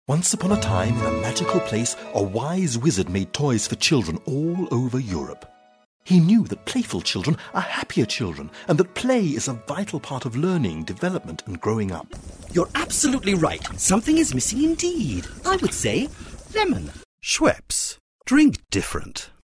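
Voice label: English, British, male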